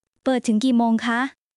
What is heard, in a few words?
Thai, basic thai phrases; タイ旅行で必須の「伝える力」を磨く！カタカナ発音で覚える基本の100フレーズ